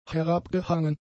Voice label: "herabgehangen", German; adjectives

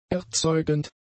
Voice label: "erzeugend", German; adjectives

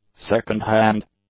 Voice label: "second-hand", English; adjectives

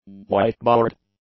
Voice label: "whiteboard", English; nouns

